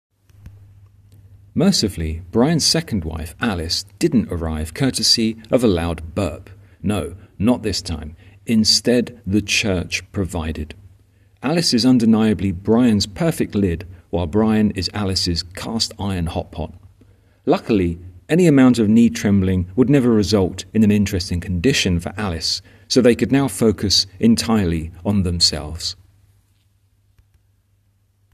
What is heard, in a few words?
voiceover